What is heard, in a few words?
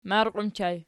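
"marqiw" ergative plural, Archi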